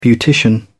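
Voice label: "beautician", British English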